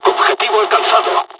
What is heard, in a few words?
japanese first wave, dialogs spanish; War Thunder